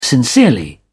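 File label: "sincerely", British English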